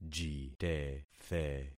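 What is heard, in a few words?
"jitethe", concatenated syllables; Affixing experiment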